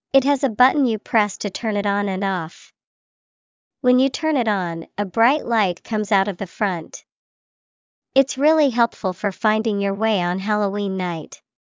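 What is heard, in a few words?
flashlight, English vocabulary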